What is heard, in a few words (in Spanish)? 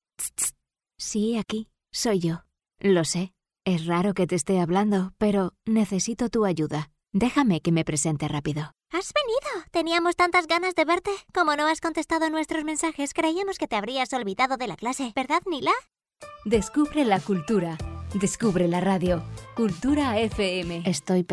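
generico España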